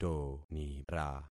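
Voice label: "tonira", concatenated syllables; Affixing experiment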